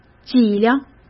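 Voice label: "脊梁", Mandarin Chinese; hsk; chinese flashcards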